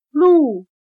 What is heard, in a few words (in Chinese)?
"鹿", chino